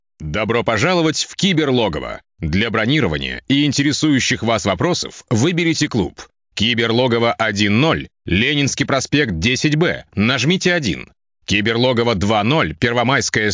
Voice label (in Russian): avtootvetchik